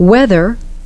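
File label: "whether", English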